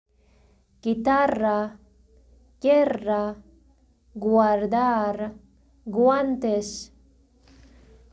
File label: spanish